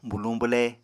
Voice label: "buluñ-bwle", Apatani